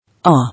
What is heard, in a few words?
"Awe", English